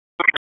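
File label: EMS Dispatch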